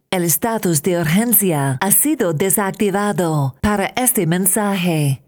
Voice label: urgent status removed spanish